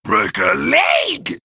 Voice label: Male Ghoul Attack

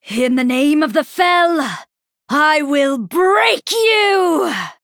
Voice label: Female Night Elf Greetings

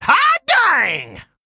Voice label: Goblin Emote Attack

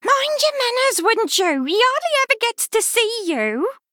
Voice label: Female Murloc; Finleys Mum; Story Faelin Mission9b Exchange C

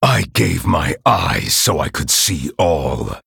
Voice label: Male Night Elf Emote Picked

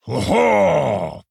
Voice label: Orc Male Play

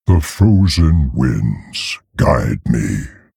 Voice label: Male Tauren Play

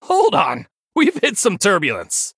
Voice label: Male Human Flavor

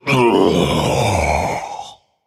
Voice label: Male Undead Death

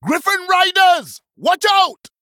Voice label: Male Troll Introduction

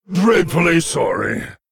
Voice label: Male Lich Sorry

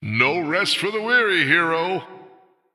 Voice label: Male Human Ahead

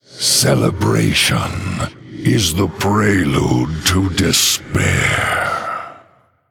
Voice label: Male Human HAPPY HOLIDAYS

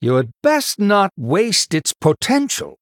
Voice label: Male Human

